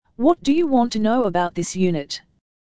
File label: dialog